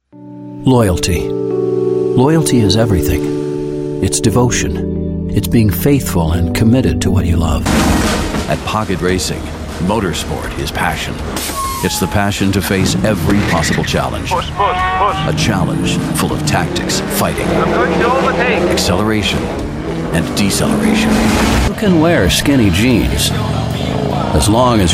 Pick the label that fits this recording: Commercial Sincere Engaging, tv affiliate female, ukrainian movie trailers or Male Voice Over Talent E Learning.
Commercial Sincere Engaging